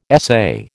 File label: "essay", American